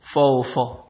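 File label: "fourofour", Nigerian Pidgin, male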